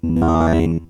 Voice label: Vocoder Nine